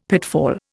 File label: "pitfall", Indian; Dictionary